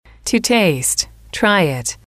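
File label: to taste try it, female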